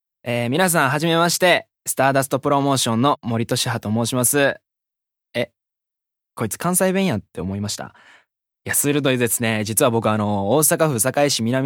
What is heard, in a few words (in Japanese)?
フリートーク